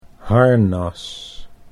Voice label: "harnas", Dutch